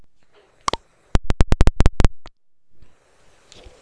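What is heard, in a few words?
"lute", spoken word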